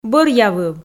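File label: "бӧръявыв", Komi, female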